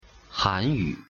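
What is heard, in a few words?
pronunciation "韩语", Chinese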